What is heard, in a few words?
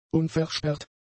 "unversperrt", German; adjectives